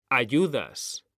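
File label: "ayudas", Spanish, male; mekanta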